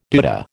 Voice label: "doodah", American